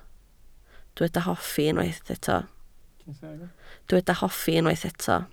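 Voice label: "hoffi" Welsh, spoken word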